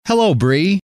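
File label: hello, male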